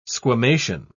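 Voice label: "squamation", English, British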